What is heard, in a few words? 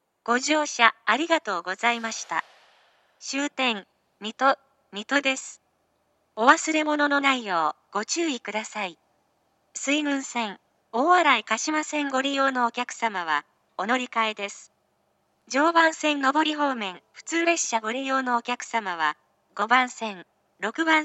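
platform 3 terminal arrival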